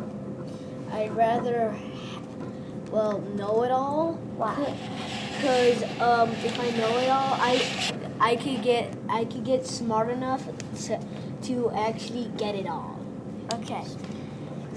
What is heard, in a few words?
morning announcements